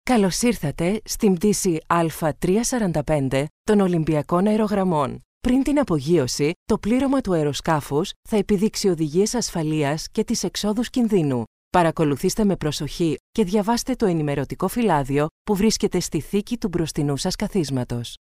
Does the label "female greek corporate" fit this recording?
Yes